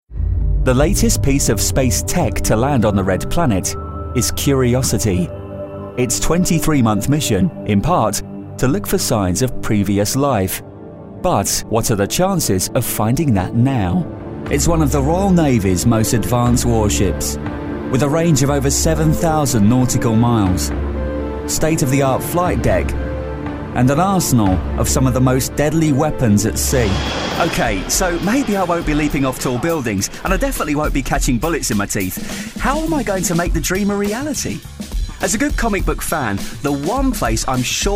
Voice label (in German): EngUK Kommentar Variabel